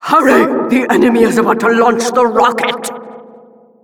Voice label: Announcer rocket warnings